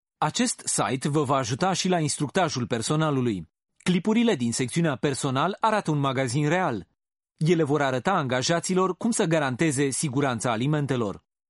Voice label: Romanian M Corporate

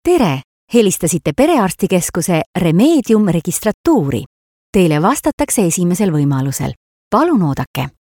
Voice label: Estonian F Narration

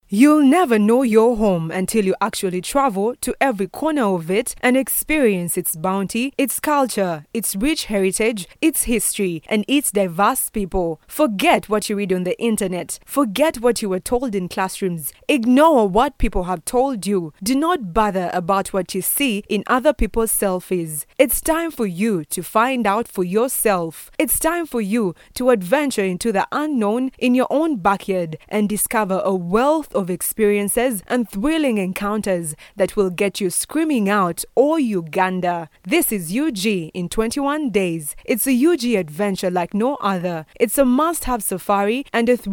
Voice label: East African English F Tourguide; accent of africa

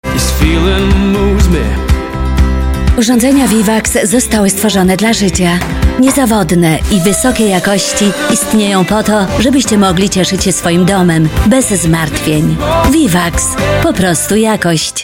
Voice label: Polish; VIVAX

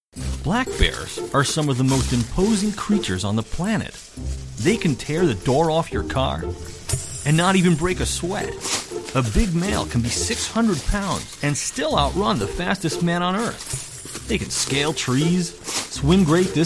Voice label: English US M Narration; voice over; american

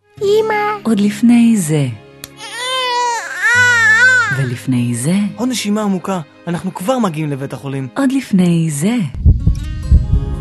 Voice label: hebrew

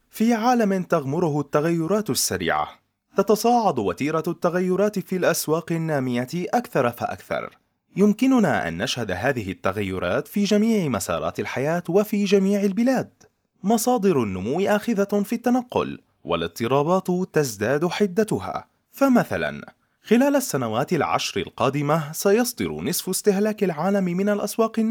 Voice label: Arabic M Documentary; voice over

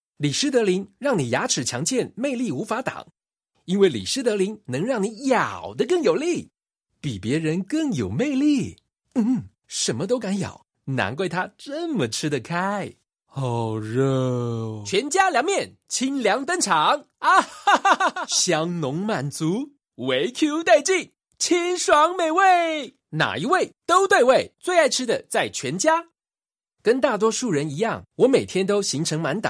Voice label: Taiwanese Mandarin M Commercial

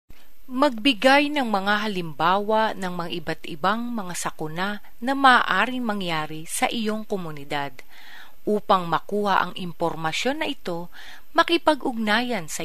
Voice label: Fillipino F Tutorial; filipino

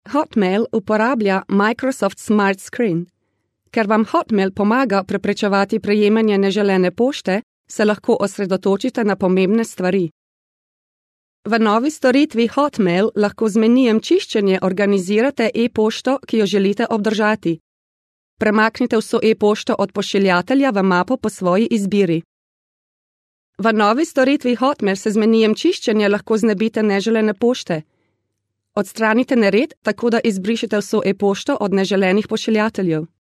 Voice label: Slovenian training

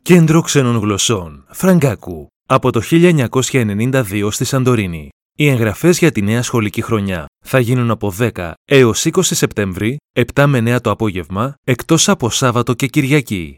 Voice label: Greek M Raw; voice over

